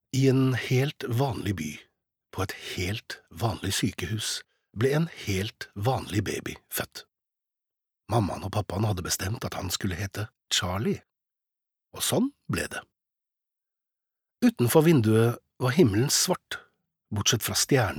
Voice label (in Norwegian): Norwegian barnebok, male